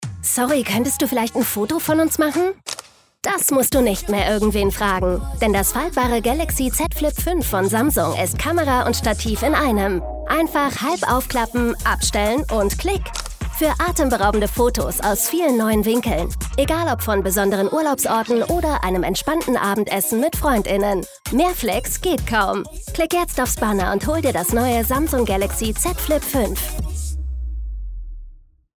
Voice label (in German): Werbung; samsung z flip 5